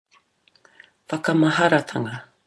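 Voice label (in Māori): "whakamaharatanga", Māori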